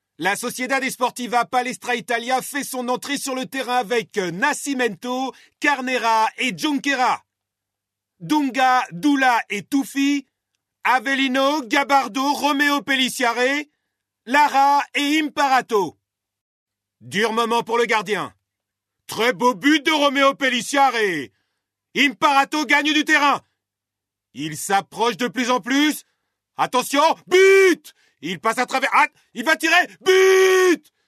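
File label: French, male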